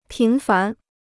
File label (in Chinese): "频繁", Chinese